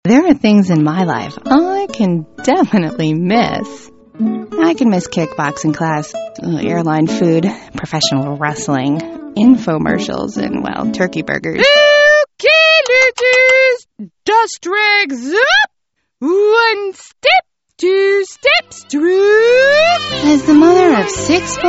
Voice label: voiceover female